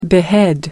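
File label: "behead", English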